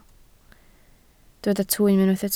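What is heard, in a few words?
"twym" Welsh, spoken word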